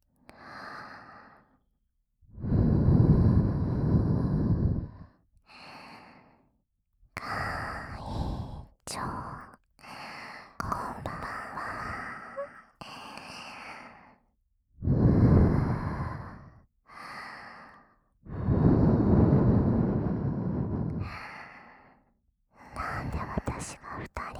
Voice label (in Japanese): 夢の中で両耳ず～っとふわふわ吐息, ASMR; オナニー♪; 生徒会長